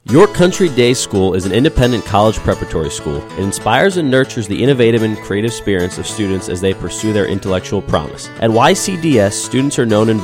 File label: commercial